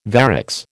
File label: "varix", American